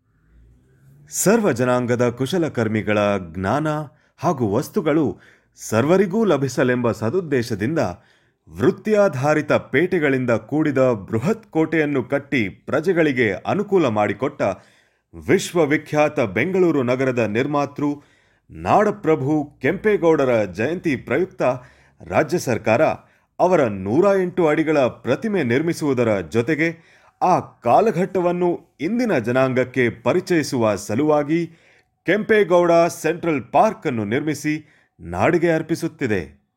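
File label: Male voiceover sample Kannada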